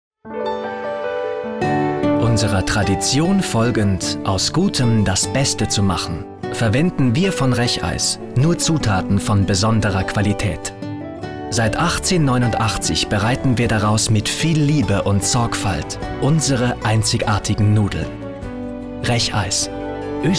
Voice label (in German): Werbung OFF; Reicheis